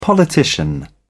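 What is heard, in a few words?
"politician", British English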